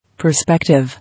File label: "Perspective", English